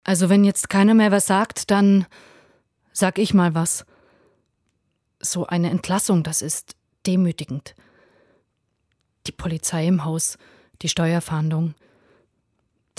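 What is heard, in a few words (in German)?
Hörspiel Kündigung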